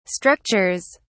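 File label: "structures", British English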